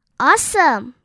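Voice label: "awesome", English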